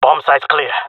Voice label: bombsite clear, jungle male; player vo; Counter Strike Model Pack; CS:GO

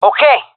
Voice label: affirmation, fem; vo; Counter Strike Model Pack; CS:GO

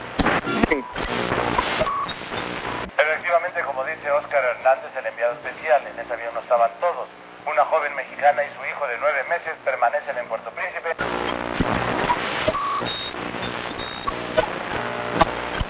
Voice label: spanish radio, ambient chatter; Counter Strike Model Pack; CS:S

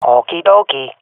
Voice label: affirmation, swat fem; vo; Counter Strike Model Pack; CS:GO

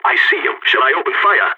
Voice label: radio enemy spotted, balkan; vo

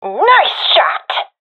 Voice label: sees enemy killed, professional fem; vo; Counter Strike Model Pack; CS:GO

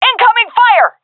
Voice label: taking fire, seal fem; vo; Counter Strike Model Pack; CS:GO

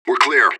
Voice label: radio bot clear; fbihrt

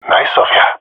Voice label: thankful, jungle male epic; Source Model Packs; Counter Strike Model Pack; CS2

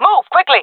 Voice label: request move, jungle fem; player vo jungle fem; Counter Strike Model Pack; CS:GO

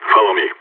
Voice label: radio follow me; Counter Strike; CS:GO; phoenix

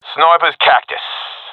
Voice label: i killed sniper, professional epic; Counter Strike Model Pack; CS:GO

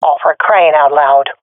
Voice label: sees friend killed, swat fem; Counter Strike Model Pack; CS:GO

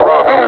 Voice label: "bravo", suppressor; Half Life Alyx; combine

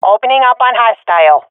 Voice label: attacking, swat fem; Counter Strike Model Pack; CS:GO

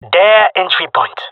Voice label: enemy spawn, jungle male; player vo; Counter Strike Model Pack; CS:GO

